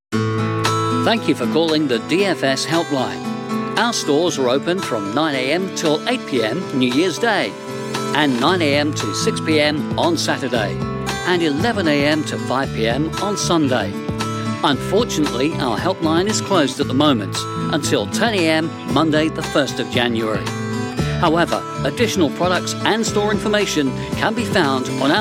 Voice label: On Hold; Voice-over demos; on hold voices